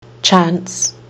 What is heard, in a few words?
"chance", kiwi english